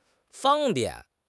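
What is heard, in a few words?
"fang1 bian4", Chinese; HSK; HSK3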